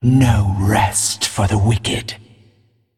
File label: Female Green Dragon